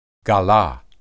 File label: "galah", Hebrew